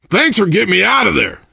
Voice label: to the rescue thanks, survivor voice biker

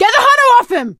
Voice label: hunter pounced, survivor voice; Left 4 Dead 2; Source Engine; video games; producer

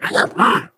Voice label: angry

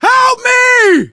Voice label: help, survivor voice, manager

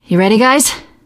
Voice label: ask ready, survivor voice teen girl

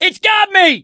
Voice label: charger running with player, survivor voice; source engine; left 4 dead 2

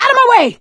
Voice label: witch chasing; voice; source engine; left 4 dead 2; survivor; producer

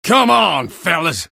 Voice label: jeers; engineer; source engine; tf

